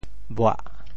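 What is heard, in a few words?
"buah4", Teochew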